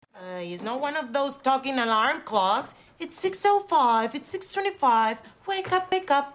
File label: Talking Alarm Clocks